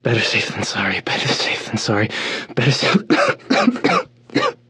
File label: radio initial broadcast; Left 4 Dead 2; Source Engine; video games; npc; churchguy